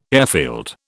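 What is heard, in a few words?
"airfield", British English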